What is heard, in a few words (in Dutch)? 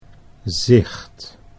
"zicht", Dutch